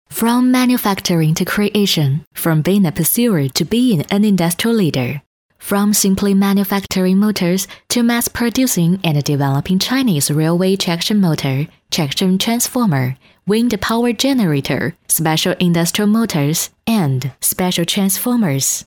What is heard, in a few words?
英文 女 温柔; 中国南车集团英文